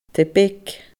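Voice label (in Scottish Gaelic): "taigh beag", Scottish Gaelic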